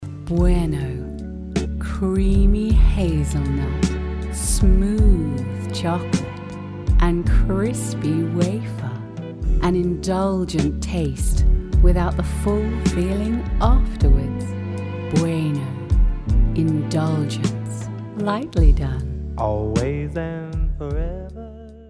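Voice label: Commercial Received Pronunciation; RP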